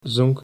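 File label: "zonk", Dutch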